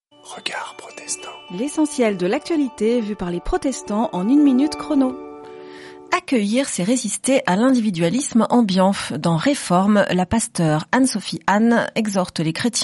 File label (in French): Spot radio; Regards protestants